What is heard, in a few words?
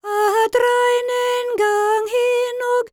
WELSH F, World Voices